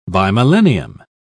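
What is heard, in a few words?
"bimillennium", English, uk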